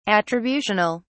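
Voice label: "attributional", British English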